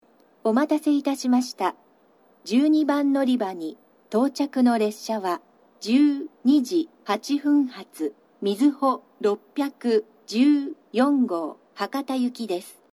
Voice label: のりば接近放送, 新幹線ホーム; 12番のりば接近放送（みずほ614号 博多行き）; kumamoto

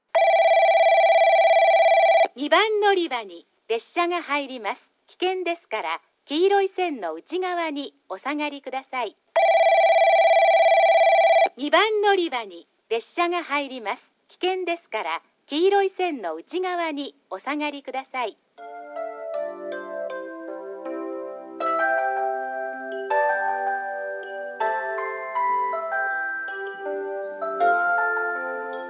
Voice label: 2番のりば接近放送 女声＋接近メロディ; 宇佐

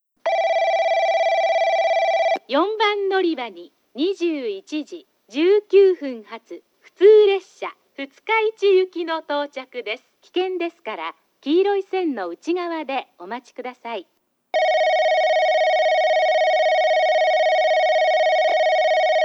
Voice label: 4番のりば接近放送（二日市行き）; 福工大前

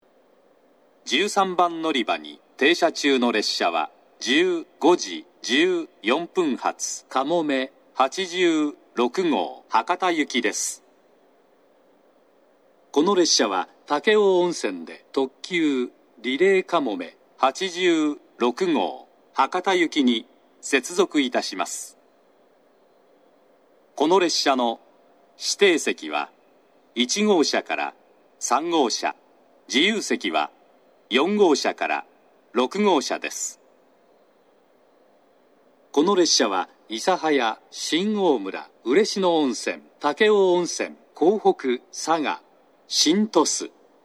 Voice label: 長崎 西九州新幹線ホーム 自動放送, 13番のりば停車中放送; かもめ86号 博多行き）※停車駅が鳥栖まで

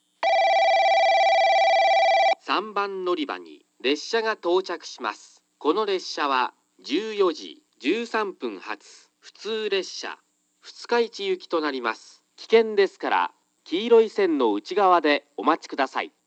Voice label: 接近放送（折り返し 二日市行き）

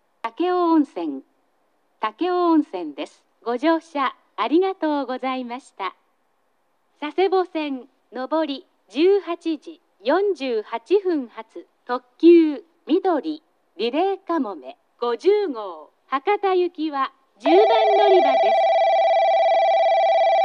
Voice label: 到着放送; 合成音声を使用した詳細な案内; takeo onsen; リレー特急への乗換はありますが、西九州新幹線に関する案内はありません。

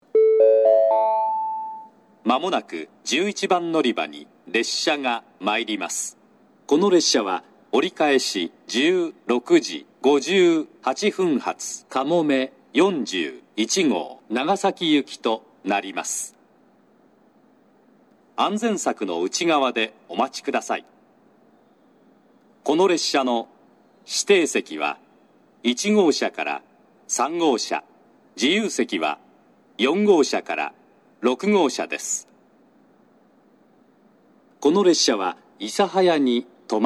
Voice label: 11番のりば接近予告放送; かもめ41号 長崎行き; takeo onsen; 折り返し

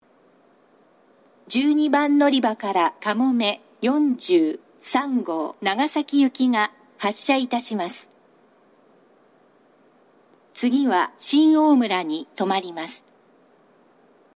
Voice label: 嬉野温泉, 12番のりば発車放送; かもめ43号 長崎行き; 武雄温泉

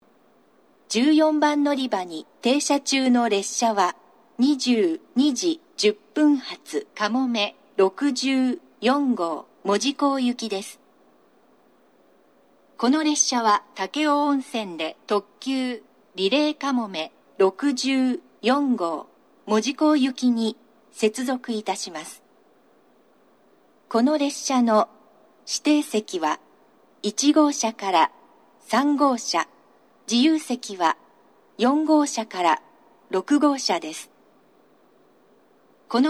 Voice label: 長崎 西九州新幹線ホーム 自動放送, 14番のりば停車中放送; かもめ64号 門司港行き

